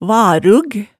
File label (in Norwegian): "varug", hemsedalsmaalet tale